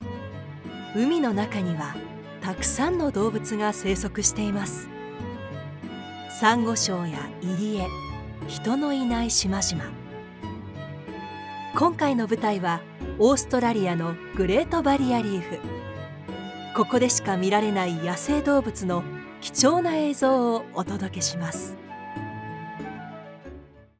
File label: japanese documentaries